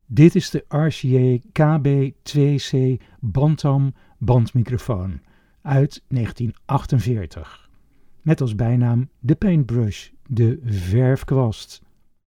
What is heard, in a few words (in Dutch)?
RCA KB-2C Bantam sound NL